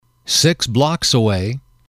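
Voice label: "six blocks away", English, male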